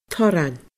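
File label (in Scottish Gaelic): "torran", Scottish Gaelic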